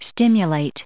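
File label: "stimulate", US English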